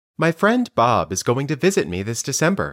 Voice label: Dictation